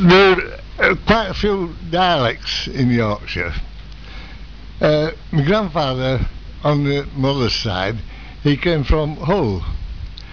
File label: accents